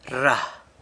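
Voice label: "rra", San Lucas Quiaviní Zapotec; runs out, gets finished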